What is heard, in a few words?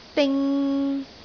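"ding3", Cantonese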